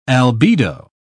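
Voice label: "albedo", British English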